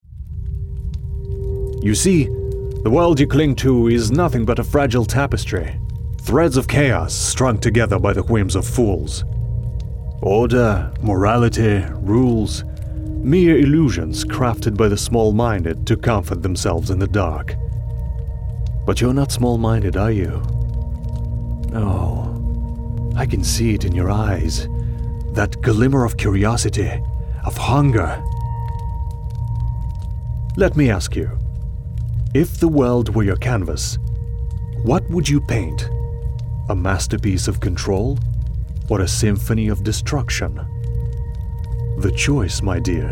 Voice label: english animation

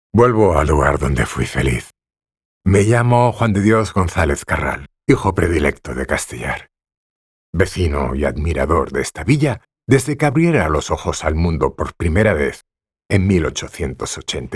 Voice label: spanish audio guides

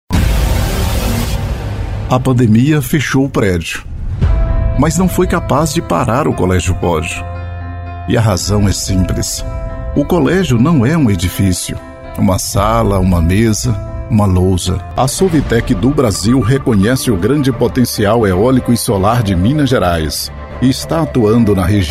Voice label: portuguese brazilian corporate videos

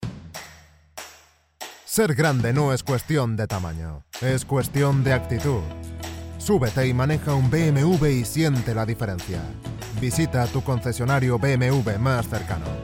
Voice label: spanish castilian commercial

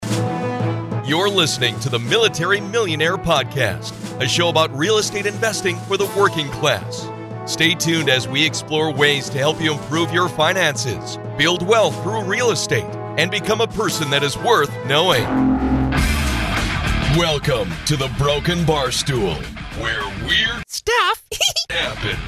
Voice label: english american podcasts

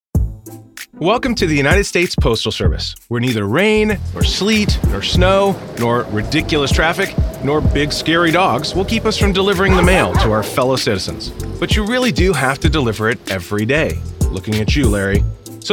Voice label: english american e-learning

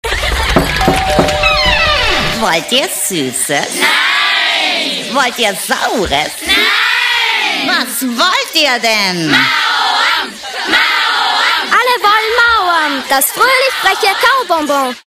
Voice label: german austrian animation

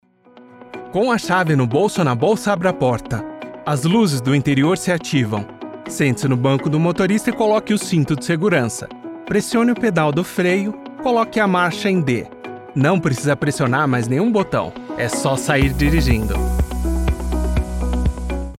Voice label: portuguese brazilian e-learning

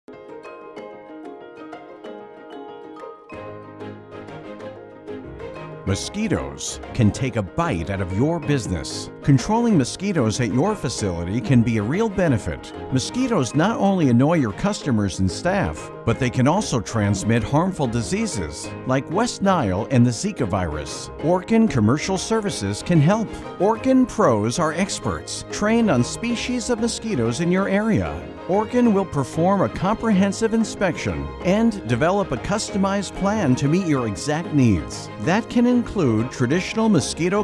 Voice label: english american explainer videos

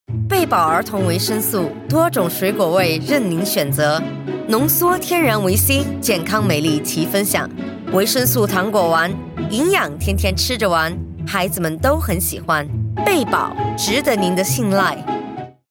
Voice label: chinese mandarin promos

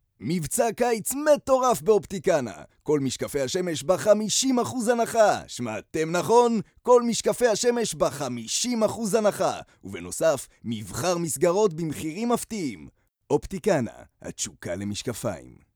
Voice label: hebrew others

